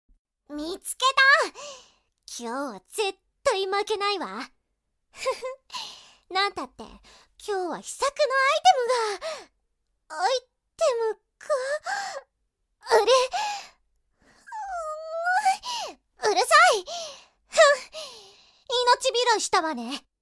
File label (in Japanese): 悪ガキ ドジっ子 8歳